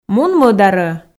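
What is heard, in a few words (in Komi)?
мун мӧдарӧ, Komi, female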